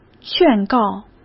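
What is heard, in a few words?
"劝告" Mandarin Chinese; hsk; chinese-flashcards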